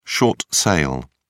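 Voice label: "short sale", British English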